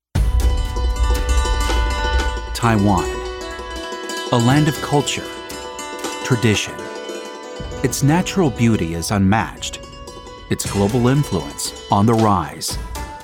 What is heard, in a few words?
Documentary Narration; Narration - Broadcast & Documentary